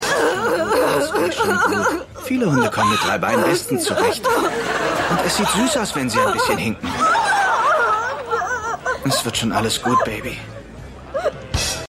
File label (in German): Weinende Stripperin; Keine Gnade Fuer Dad